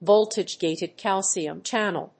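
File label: "voltage-gated calcium channel", English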